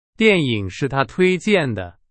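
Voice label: Chinese; hsk2; 是。。。的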